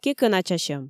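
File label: "кекӧначасьӧм", Komi, female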